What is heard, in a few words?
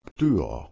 "ptuo", Ancient Greek